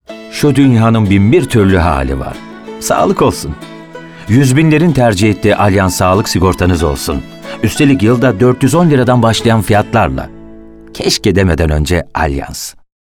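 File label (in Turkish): enerjik esprili, seslendirme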